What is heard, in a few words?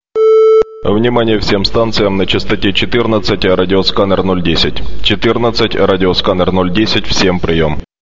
CQ 27 reverb